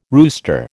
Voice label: "rooster", American